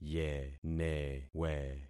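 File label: "yenewe", concatenated syllables; Affixing experiment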